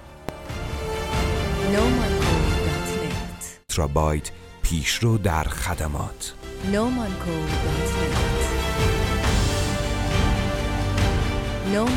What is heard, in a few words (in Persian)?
ضبط صدای تبلیغاتی به همراه موزیک انتظار; ترابایت سرویس